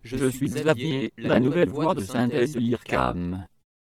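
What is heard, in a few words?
"je suis", stereo pitch stretch, voice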